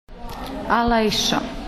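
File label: "ala", Croatian dialect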